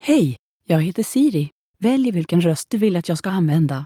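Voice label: Swedish; iOS 26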